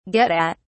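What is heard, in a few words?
"get at", British English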